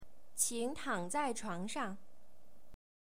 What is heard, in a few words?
Mandarin Chinese